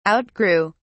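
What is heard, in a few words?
"outgrew", British English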